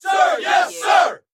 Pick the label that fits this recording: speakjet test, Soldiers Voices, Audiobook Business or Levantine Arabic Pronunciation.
Soldiers Voices